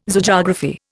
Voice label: "Zoogeography", Indian